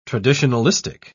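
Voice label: "traditionalistic", British English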